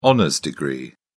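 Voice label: "honours degree", British English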